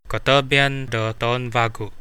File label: new year, kadazan; Kotobian do toun vagu; Coastal Kadazan